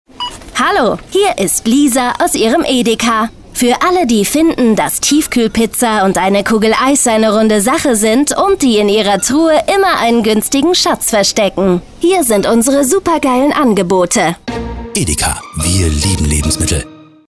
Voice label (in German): werbung pizza; edeka